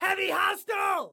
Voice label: Warn Heavy, Excited Guy